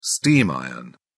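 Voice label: "steam iron", British English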